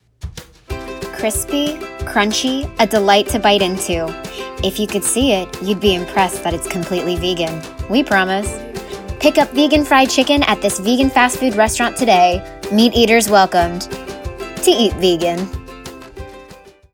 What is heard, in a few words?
vo vegan chicken